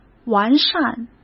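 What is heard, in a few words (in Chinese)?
"完善", Mandarin Chinese; chinese flashcards; hsk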